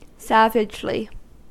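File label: "savagely", American English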